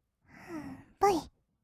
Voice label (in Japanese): "ぶい", kawaii voice; 1200 kawaii voice!!; 色々なワンショット